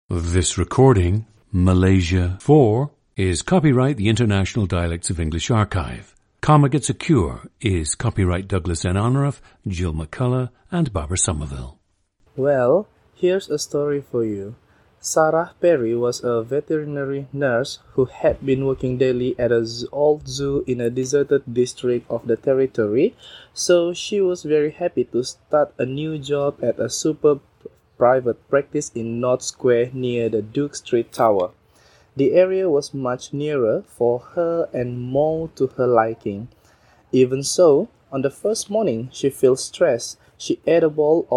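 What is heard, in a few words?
malaysia, International Dialects of English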